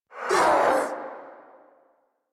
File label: Pain; Warframe; Zariman; Zariman Wraith